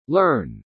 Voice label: "learn", English